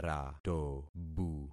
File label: "ratobu", concat syllables; Affixing experiment